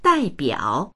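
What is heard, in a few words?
"dai4biao3", Chinese; 代表; dàibiǎo; 代表する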